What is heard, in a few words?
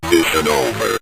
mission Over, Synth Voice; Kenney's Sound Pack